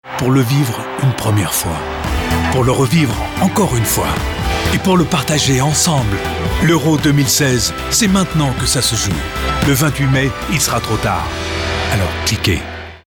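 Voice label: Voix Off Voice Over, bilingue Américain Français; Euro 2016 Foot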